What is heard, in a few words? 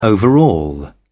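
"overall", British English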